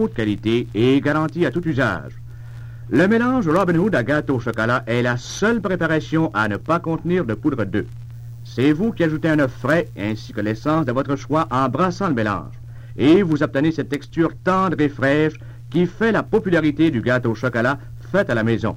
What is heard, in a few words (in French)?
la radio nouvelles